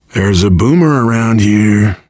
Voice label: heard boomer, survivor voice, biker; Left 4 Dead 2